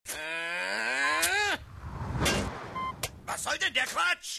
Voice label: German; Bender